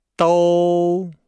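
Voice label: "dou", Chinese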